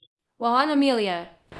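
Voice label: Voice Acting Spanish Female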